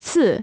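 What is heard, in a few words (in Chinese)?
"次" Chinese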